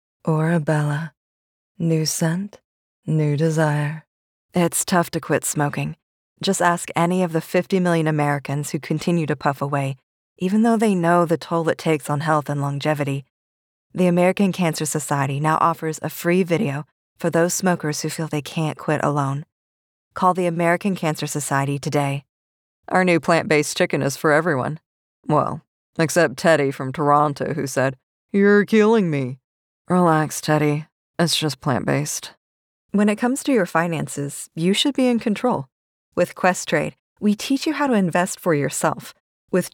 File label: Commercial Samples